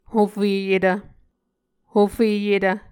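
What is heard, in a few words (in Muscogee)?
"hofeyetv", Muscogee word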